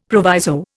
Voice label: "proviso", Indian; Dictionary